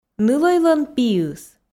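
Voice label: "нылӧйлӧн пиыс", Komi, female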